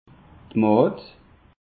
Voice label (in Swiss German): "mad", alsace; peche